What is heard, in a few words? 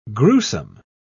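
"grewsome", British English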